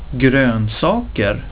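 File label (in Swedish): "grönsaker", Swedish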